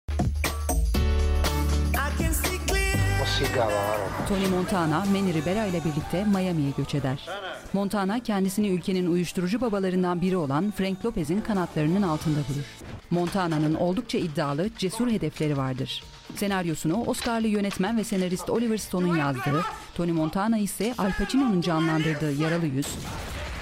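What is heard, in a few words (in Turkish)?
tanıtım film; yaraliyuz 23mayis bugece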